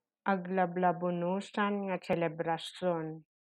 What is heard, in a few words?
Ilocano